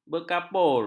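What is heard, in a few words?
"berkapur", Malay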